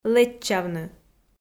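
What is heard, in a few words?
"лэччавны" Komi, female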